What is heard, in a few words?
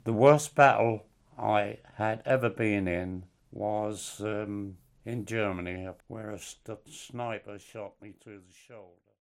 NORWICH MALE